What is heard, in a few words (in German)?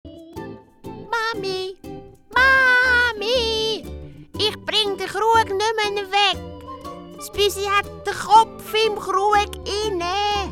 Hoerspiel CH ZH; flippig frech verspielt